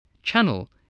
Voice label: "channel", English, voice